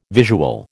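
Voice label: "visual", American English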